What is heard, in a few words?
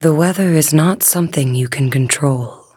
touch, en; cookie run